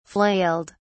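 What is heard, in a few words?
"flailed", British English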